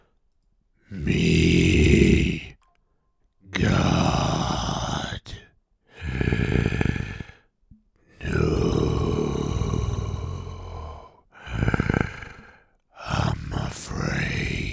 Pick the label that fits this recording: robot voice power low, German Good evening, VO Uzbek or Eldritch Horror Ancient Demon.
Eldritch Horror Ancient Demon